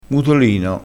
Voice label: "mutolino", crespinese

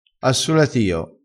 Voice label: "solatio", crespinese

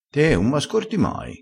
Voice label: "ascorta", crespinese

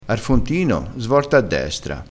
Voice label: "ar", crespinese

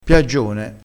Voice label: "piaggione", crespinese

